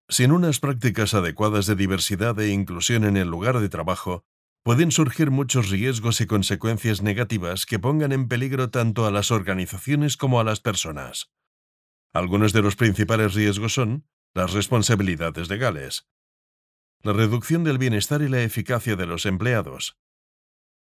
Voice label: locutor spanish voiceover

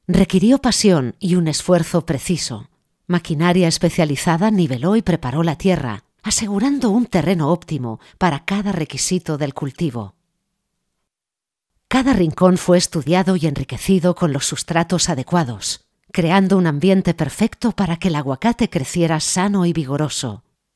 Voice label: locutora Spanish voiceover

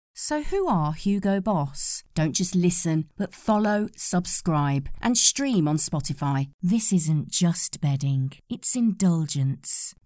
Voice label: english voiceover locutora inglesa

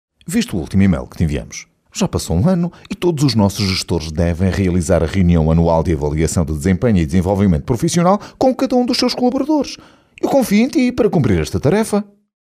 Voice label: locutor portugues portuguese voiceover

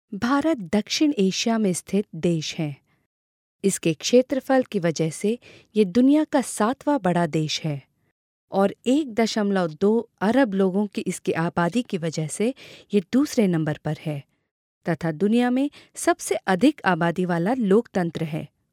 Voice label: hindi voiceover locutora hindi india; locutora hindú

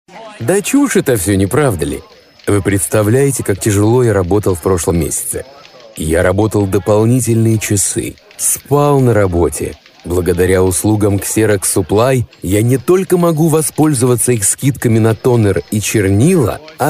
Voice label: locutor ruso russian voiceover

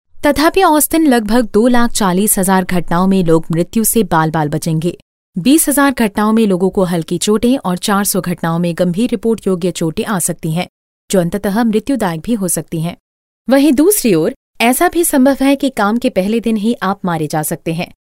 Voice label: locutora hindi voiceover; locutora hindú

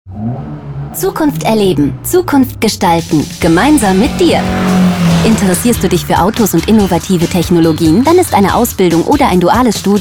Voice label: german female voiceover locutora alemana

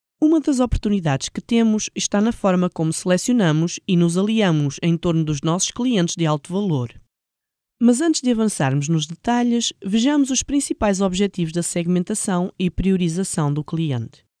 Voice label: portuguese voiceover locutora portuguesa

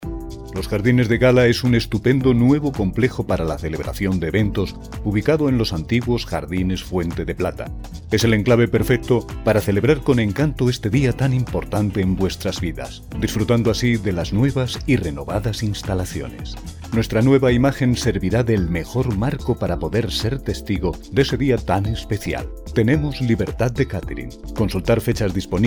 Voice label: publicidad voiceover

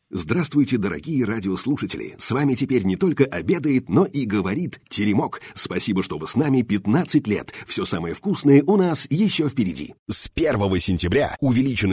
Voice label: locutor ruso russian voiceover

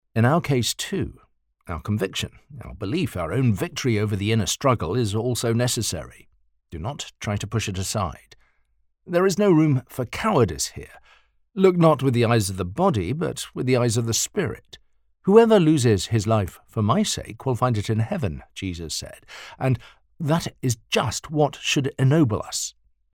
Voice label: locutor ingles english voiceover, locutor inglés

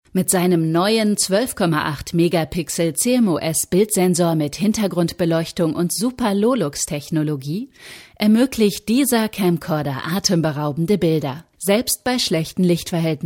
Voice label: locutora alemana german voiceover; German voice talent

